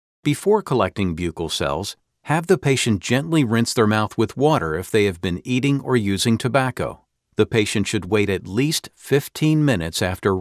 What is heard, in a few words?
Medical and Healthcare Authoratative Educational Instructor